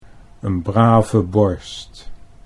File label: "n brave borst", Dutch